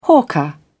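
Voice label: "hawker", British English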